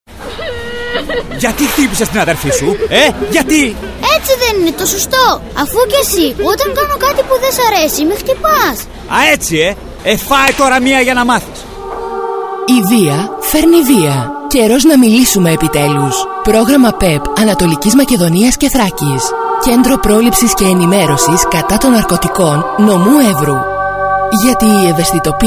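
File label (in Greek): γυναίκα παιδί, Ραδιοφωνικά spot; Ραδιοφωνικό Spot Νο4; ΚΕΝΤΡΟΥ ΠΡΟΛΗΨΗΣ & ΕΝΗΜΕΡΩΣΗΣ κατά των Ναρκωτικών; dimotiko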